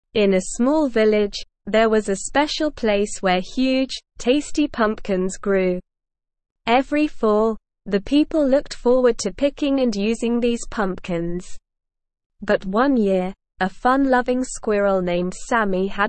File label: ESL Short Stories for Kids Lower Intermediate SLOW Reading; The Party in the Pumpkin Patch